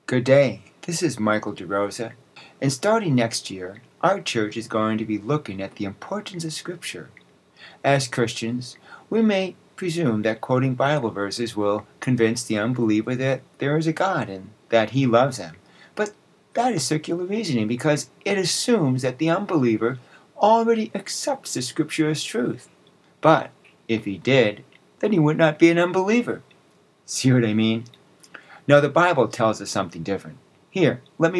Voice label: Radio Sermonette; Explaining God; Genesis